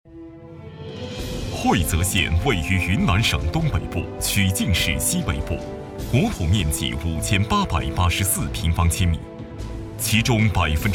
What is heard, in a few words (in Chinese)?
男,宣传片; 云南会泽宣传